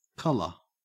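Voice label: "colour", Australian English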